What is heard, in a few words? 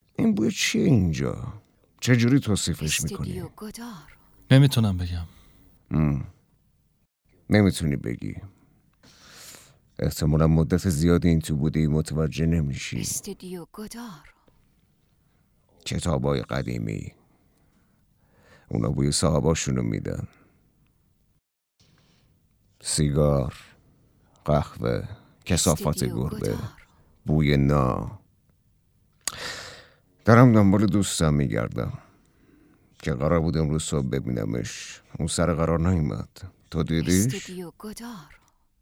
dub